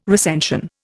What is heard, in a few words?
"recension", Indian